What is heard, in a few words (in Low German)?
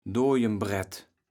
"Dojembrett", kaevels platt